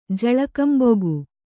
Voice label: "ಝಳಕಂಬೊಗು", Kannada

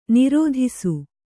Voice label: "ನಿರ್ದೆಸೆ", Kannada

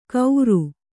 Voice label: "ಗೌರು", kannada

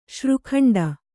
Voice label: "ಶ್ರೀಖಂಡ", kannada

